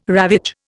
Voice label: "ravage", Indian